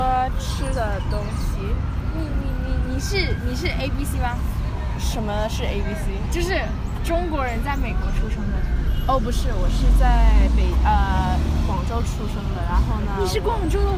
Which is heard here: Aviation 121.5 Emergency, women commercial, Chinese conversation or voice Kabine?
Chinese conversation